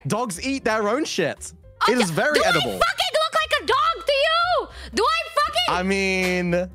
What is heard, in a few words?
parents fighting